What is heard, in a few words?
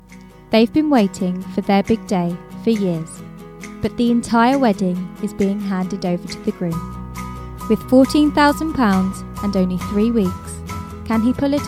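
promo english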